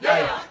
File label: "yeah", group of men